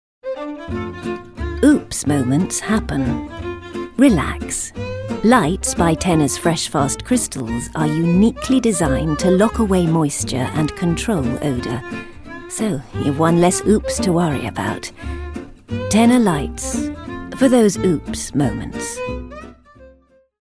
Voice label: advert; tenna light